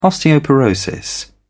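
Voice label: "osteoporosis", British English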